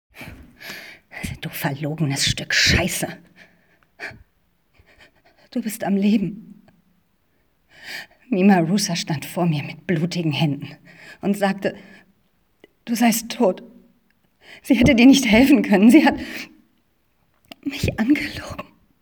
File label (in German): Synchron; Carnival Row